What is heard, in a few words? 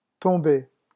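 "tomber", French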